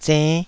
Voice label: "zenn5", taiwanese syllables

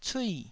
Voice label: "cui4", Taiwanese

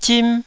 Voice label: "zim1", Taiwanese syllable

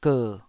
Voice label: "ger3", Taiwanese

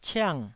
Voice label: "ciang4", taiwanese

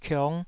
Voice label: "kiong5", taiwanese syllable

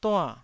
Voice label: "dua4", taiwanese syllable

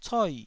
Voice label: "coi4", Taiwanese

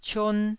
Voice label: "cion1", Taiwanese

